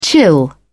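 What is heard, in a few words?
"chill out", British English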